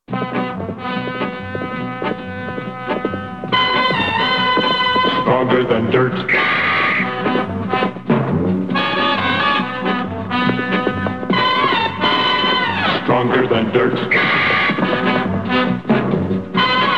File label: TV Commercials Laundry Detergent; Ajax